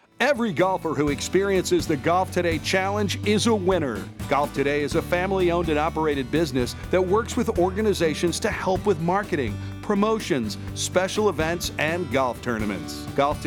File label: Commercial; Golf Today 2019; Golf Today Challenge